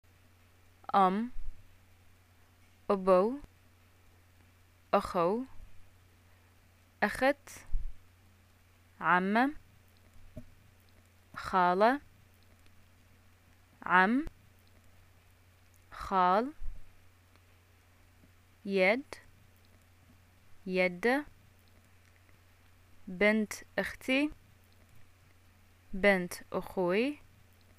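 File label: family, Bahraini arabic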